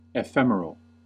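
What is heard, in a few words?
"ephemeral", US English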